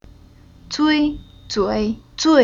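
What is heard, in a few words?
"Zui", Chinese syllable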